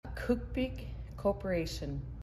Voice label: "Kuukpik", Iñupiaq, Nuiqsut; (kooh-pik); Kuukpik Corp.